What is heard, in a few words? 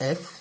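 "F", Nigerian Pidgin, male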